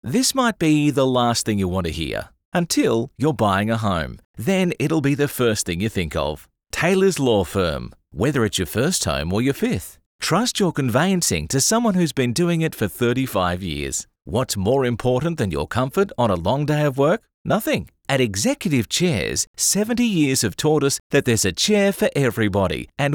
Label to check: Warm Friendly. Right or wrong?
Right